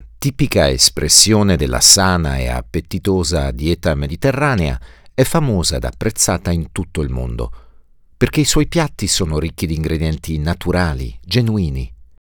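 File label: Italian, male, documentary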